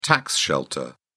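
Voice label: "tax shelter", British English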